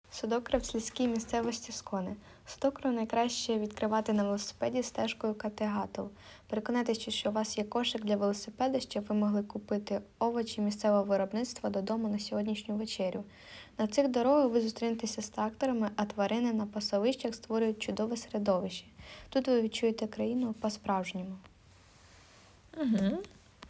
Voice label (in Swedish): ukrainska; Södåkra på den skånska landsbygden